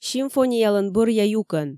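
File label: "симфониялӧн бӧръя юкӧн", Komi, female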